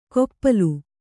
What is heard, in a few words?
"ಕೊಪ್ಪಲು", kannada